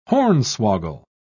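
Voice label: "hornswoggle", British English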